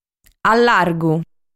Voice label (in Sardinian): "Allargu", sardu